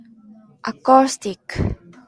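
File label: "acoustic", JPN, female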